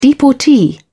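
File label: "deportee", English, British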